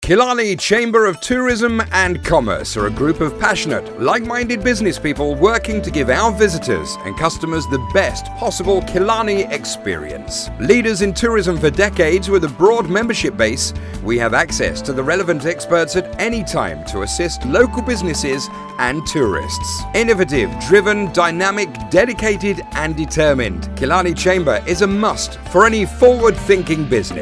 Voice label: Chamber ad; Killarney